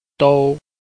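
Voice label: "dou", Chinese, tone 1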